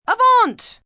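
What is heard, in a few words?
Forward French, female, French; Talking Sound Effects; Calls, action, female, foreign; VoiceBox; Twistedtracks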